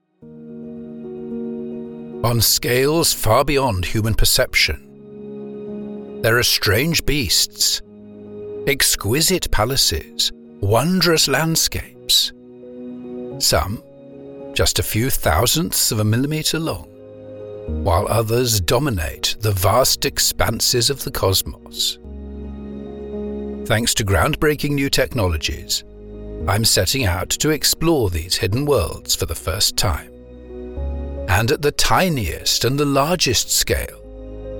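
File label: Documentary, audiobook narration; Hidden Worlds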